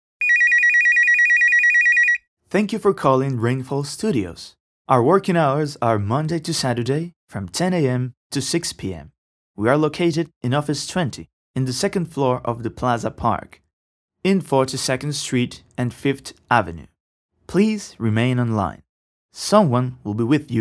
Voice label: contestadora english